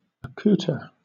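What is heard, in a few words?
"accouter", British English; pronunciation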